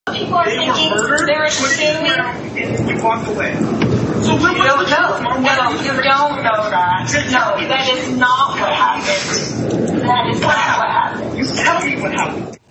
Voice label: phone call